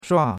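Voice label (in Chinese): "shua4" Chinese; Cours-de-Chinois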